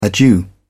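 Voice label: "adieu", British English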